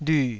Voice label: "lyu3", Taiwanese syllable

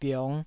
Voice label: "biong5", taiwanese

